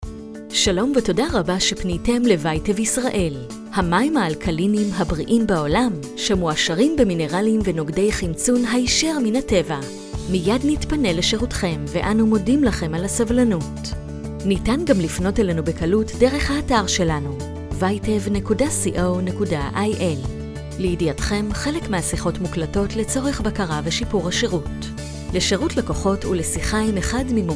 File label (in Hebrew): קריינות נתב פתיח